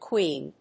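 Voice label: "quean", English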